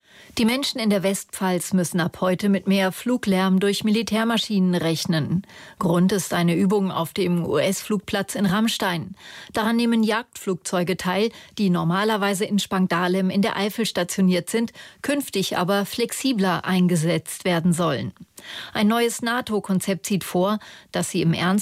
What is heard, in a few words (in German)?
swr nachrichten